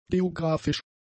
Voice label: "geografisch", German; adjectives

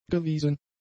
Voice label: "gewiesen", German; adjectives

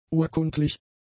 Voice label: "urkundlich", German; adjectives

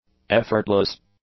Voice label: "effortless", English; adjectives

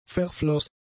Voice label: "verfloss", German; adjectives

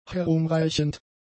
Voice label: "herumreichend", German; adjectives

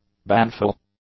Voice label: "baneful", English; adjectives

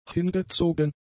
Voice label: "hingezogen", German; adjectives

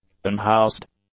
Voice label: "unhoused", english; adjectives

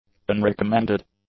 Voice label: "unrecommended", english; adjectives

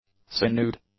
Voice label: "sinewed", English; adjectives

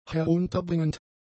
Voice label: "herunterbringend", German; adjectives

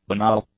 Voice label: "banal", English; adjectives

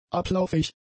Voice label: "ablauffähig", German; adjectives

